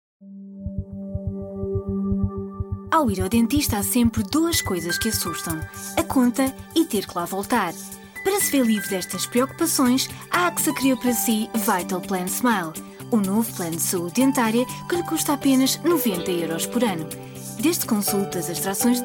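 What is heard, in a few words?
European Portuguese; GoLocalise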